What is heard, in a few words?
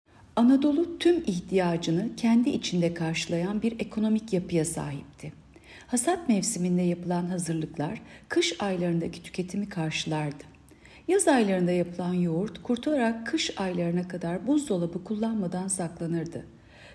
turkce